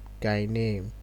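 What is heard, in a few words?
"guy name", Nigerian Pidgin, male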